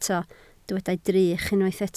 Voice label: "drych", Welsh

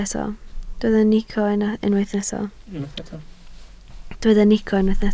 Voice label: "nico", Welsh

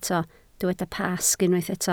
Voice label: "Pasg", Welsh

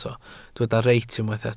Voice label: "reit", Welsh

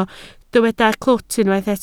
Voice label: "clwt", Welsh

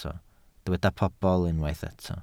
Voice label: "pobl", Welsh

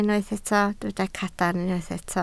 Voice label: "cadarn", Welsh

